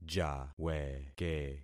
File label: "jaweke", concat syllables; Affixing experiment